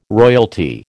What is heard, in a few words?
"royalty", American